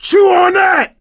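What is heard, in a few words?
battlecry, male; survivor; coach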